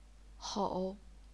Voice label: "hou3", chinese pinyin